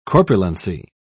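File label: "corpulency", British English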